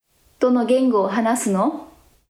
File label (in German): japanisch